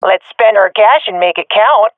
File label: request spend, swat fem; Counter Strike Model Pack; CS:GO